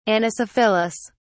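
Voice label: "anisophyllous", British English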